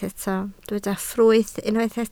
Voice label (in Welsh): "ffrwyth", Welsh